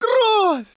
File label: Russian; Close Combat III